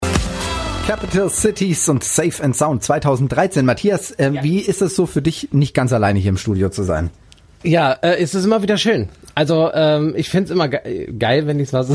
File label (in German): radio-workshop Zwischenmoderation